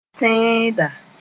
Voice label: "tẽeda", Moore

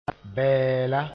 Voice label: "bɛɛla", moore; welcome greetings

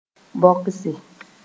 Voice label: "bõgse", Mooré

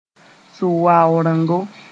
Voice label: "sʋ-waorengo", moore

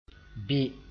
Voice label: "bɩ", moore; ripen

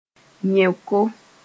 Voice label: "yẽoko", moore

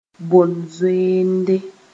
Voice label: "bõ-zoeende", Mooré

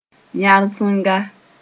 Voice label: "yãrsenga", Moore

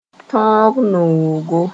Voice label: "tõog-noogo", Mooré

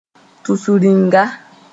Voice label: "tusringa", moore

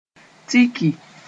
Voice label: "tiki", Moore; to push against

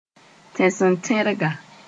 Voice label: "tẽsentẽrga", Moore

